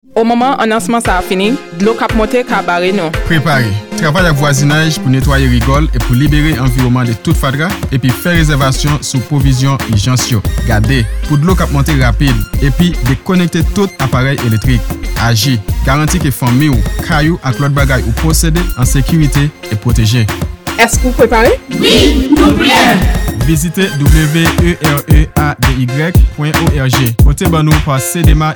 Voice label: FLOOD RADIO CREOLE